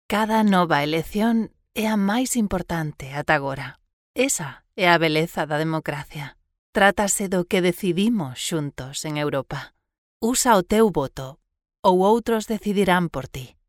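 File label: Galician warm, Spanish female voiceover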